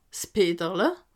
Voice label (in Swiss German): "peterle", alsace